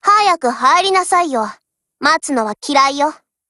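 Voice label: HELLO, Japanese; GF Alarm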